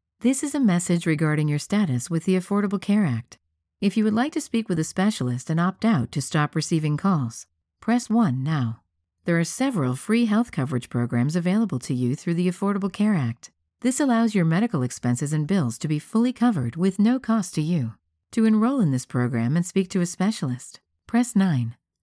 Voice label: IVR Broadcast